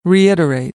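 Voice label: "reiterate", American English; AmericanBritish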